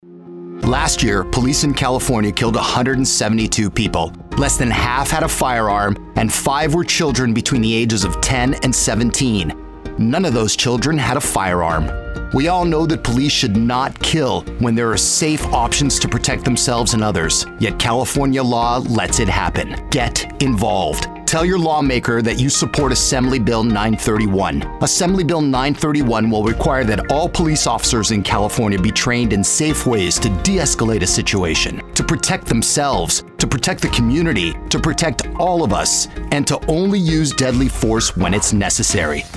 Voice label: Radio Ad